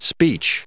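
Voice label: "speech", US English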